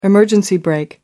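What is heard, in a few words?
"Emergency brake", English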